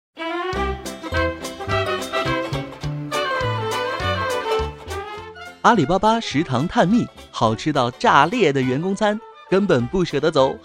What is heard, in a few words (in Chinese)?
活泼